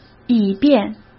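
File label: "以便", Mandarin Chinese; hsk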